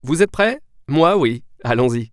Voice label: Start Drive, french; waze